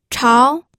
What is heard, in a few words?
"chao2", pinyin